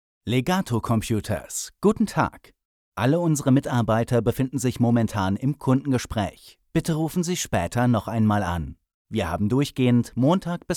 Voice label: GERMAN